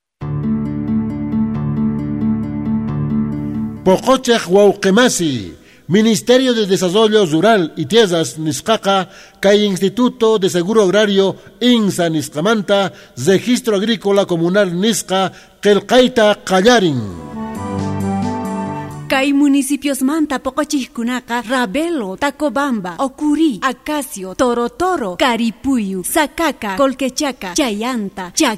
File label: QUECHUA POTOSI